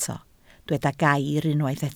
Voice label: "gair" Welsh

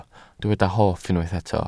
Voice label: "hoff", Welsh

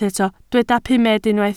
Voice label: "pumed", Welsh

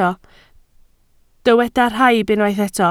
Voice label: "rhaib", Welsh

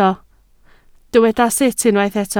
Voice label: "sut", Welsh

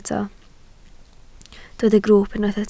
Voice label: "grwwp" Welsh

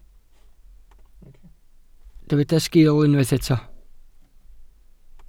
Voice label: "sgil", Welsh

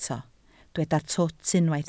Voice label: "twt", Welsh

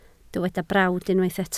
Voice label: "brawd", Welsh

